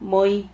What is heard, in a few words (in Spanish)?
"mohi", Silabas